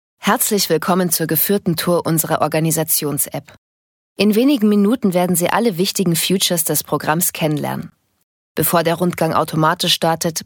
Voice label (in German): Erklaerapp Junge Erwachsene